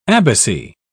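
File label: "abbacy", British English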